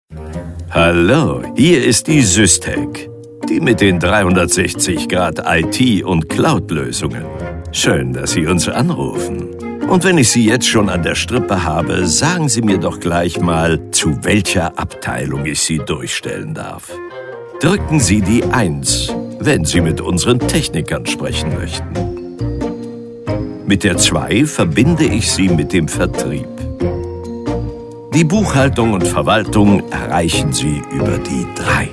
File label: Computer IVR; Telefonansage; SysTec; Telefonansage IT – Systemhaus – Computer – Software – Hardware – PC Spezialist